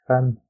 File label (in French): "femme", French